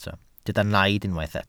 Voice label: "naid" Welsh

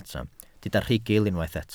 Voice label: "rhugl", Welsh

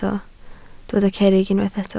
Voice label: "cerrig", Welsh

